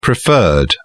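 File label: "preferred", English, British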